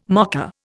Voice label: "mocker", Indian